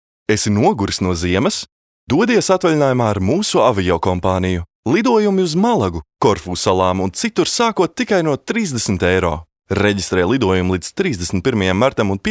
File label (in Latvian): LV Reklama